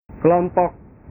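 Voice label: "kelompok", Indonesian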